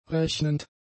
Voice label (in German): "rechnend", German; adjectives